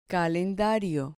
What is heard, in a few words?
"calendario", Spanish